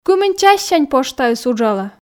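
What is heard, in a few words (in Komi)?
кымын чассянь поштаыс уджалӧ, Komi, female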